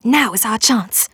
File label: VO en